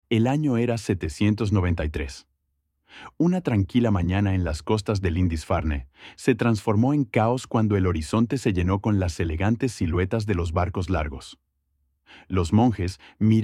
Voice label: Practice your Spanish speaking skills